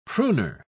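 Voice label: "pruner", British English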